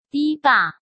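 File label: "堤坝", Mandarin Chinese; chinese-flashcards; hsk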